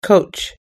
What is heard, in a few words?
"coach", us english